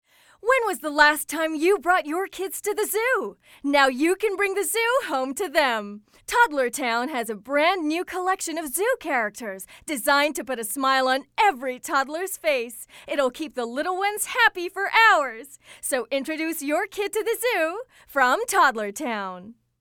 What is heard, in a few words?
English (Friendly, Exciting)